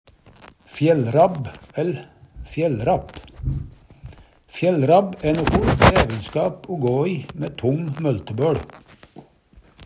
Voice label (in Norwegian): fjellrapp rabb, Numedalsmål; Fjellrabb æ noko jævelskap o gå i mæ tong møltebøL; Substantiv hankjønn; Planteriket